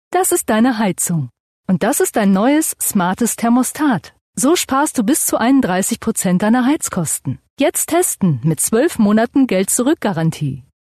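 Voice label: German, female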